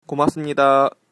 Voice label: thanks, Korean